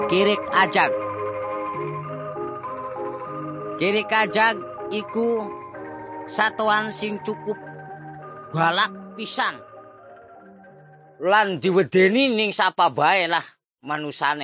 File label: indramayu lajer; parables about animals; javanese; bible